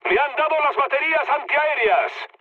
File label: damaged aa, mood low, speech; War Thunder